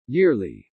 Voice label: "yearly", English